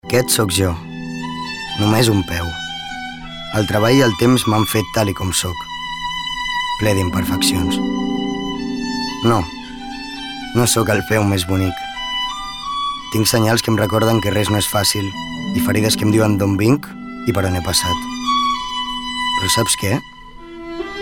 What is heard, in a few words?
male catalan feet comercial; ideasonora